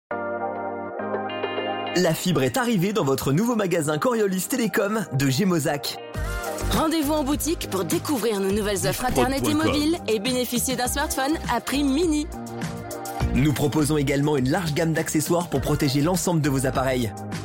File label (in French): publicite; Coriolis Telecom